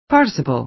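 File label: "participle", American English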